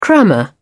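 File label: "crammer", British English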